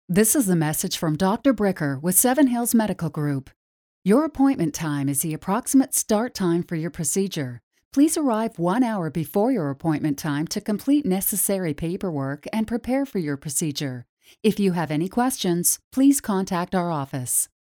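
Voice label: English Canadian, telephony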